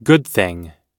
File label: "good thing", US English